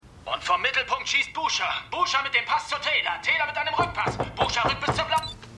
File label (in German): TV Eishockeykommentator; Stimmproben; Arrow